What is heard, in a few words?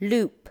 "loop", english